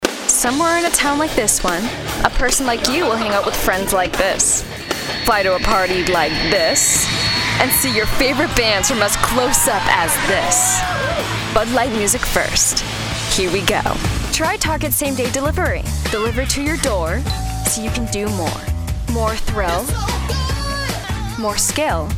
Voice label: Commercial VO

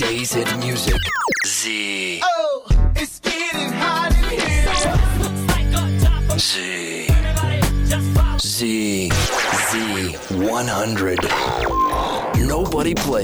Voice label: RADIO IMAGING

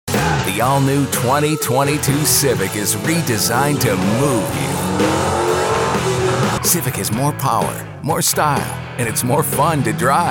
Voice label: Automotive VO

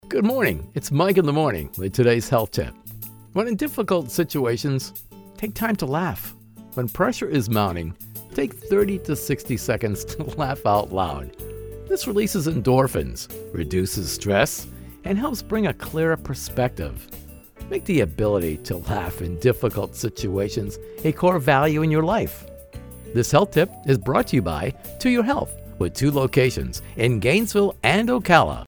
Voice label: RADIO; HEATH TIP

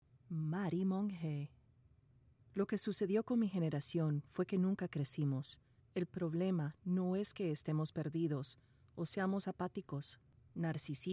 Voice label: Spanish Audio Book Adult

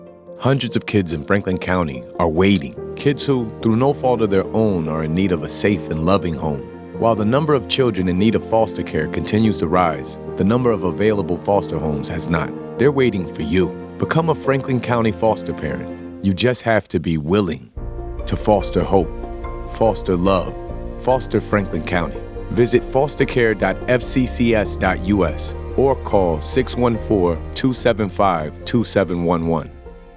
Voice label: Radio Male Voice; 30sec; FosterFranklinCounty

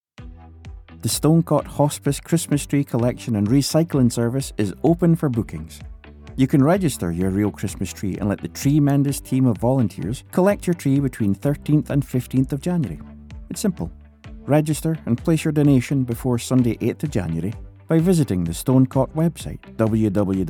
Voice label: 60 second radio ad; CHRISTMAS TREE COLL

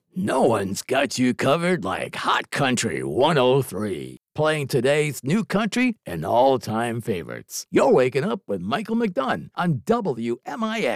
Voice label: hot country sweeper

